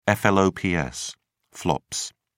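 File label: "flops", British English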